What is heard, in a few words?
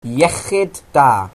"cheers", Welsh